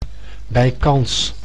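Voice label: "bijkans", Dutch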